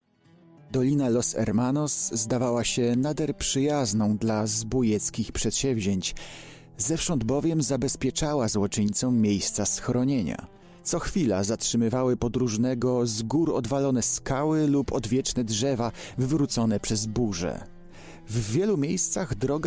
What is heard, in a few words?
AUDIOBOOK